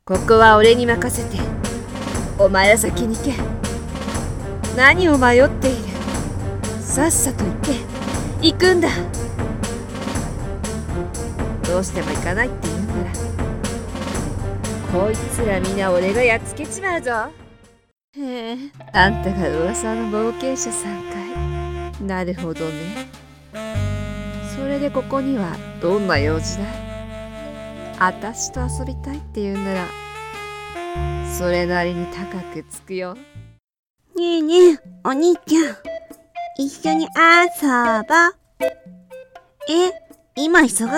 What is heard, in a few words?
animation voice